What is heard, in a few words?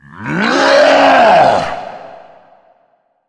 controller script attack, russian sounds